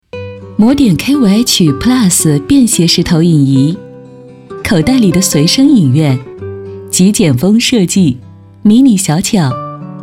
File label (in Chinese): 女, 品质广告; 魔典K5H-plus